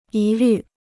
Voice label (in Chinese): "疑虑", Chinese